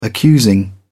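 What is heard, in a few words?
"accusing", British English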